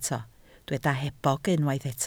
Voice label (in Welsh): "hebog", Welsh